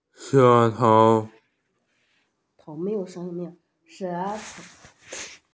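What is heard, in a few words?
"tongue", Mandarin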